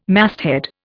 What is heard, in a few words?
"masthead", Indian